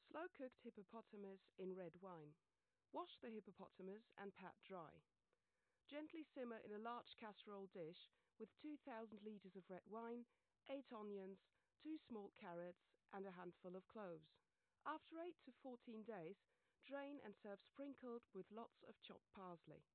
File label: speech 120db